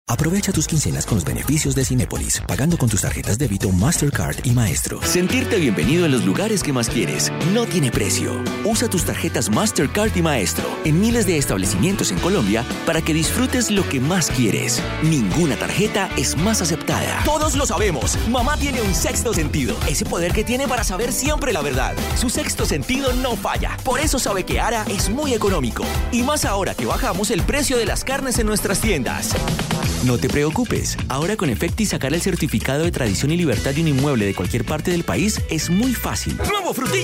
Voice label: spanish colombian commercial; colombian spanish voice actors

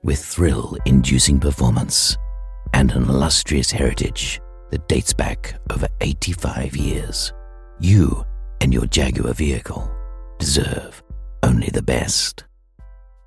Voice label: english australian automotive

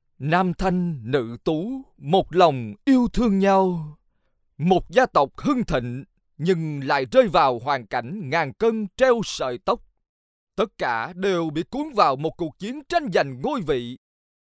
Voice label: vietnamese movie trailers; vietnamese voice over talent

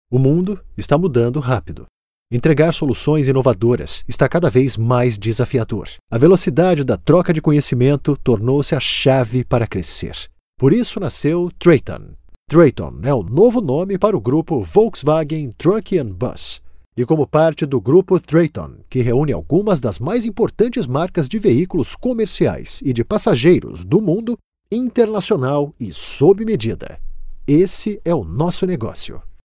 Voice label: portuguese brazilian narration; brazilian portuguese voice actors

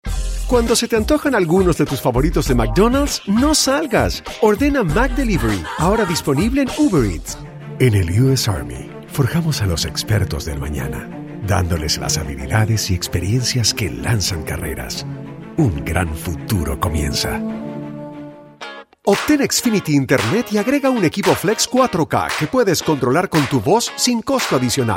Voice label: spanish mexican commercial

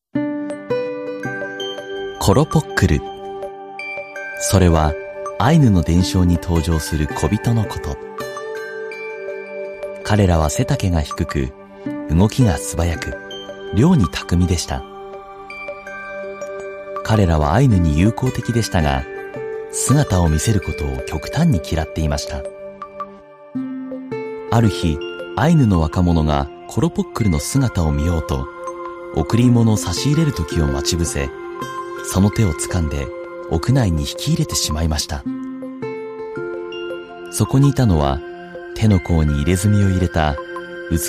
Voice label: japanese audiobooks

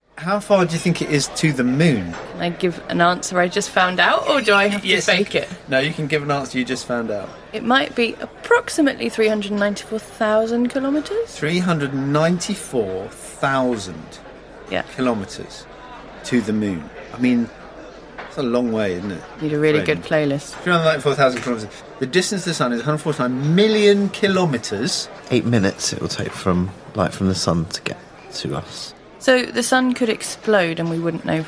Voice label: english british podcasts

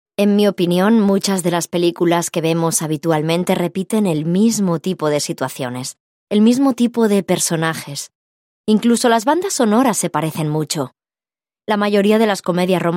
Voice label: spanish podcasts

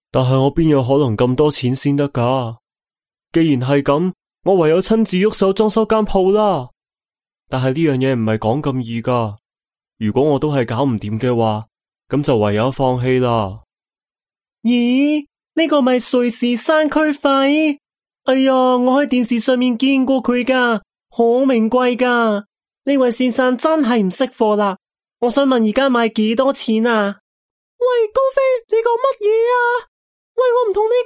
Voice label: chinese cantonese animation

